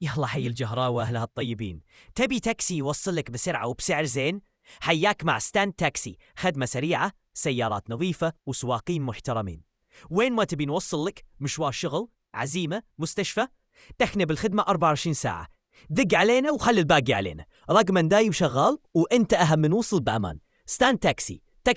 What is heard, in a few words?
ballad audio; openai-fm